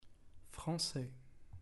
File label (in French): "francais", French